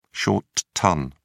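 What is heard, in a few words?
"short ton", British English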